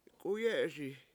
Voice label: "k\$uyE7eSi", washo; he$s swimming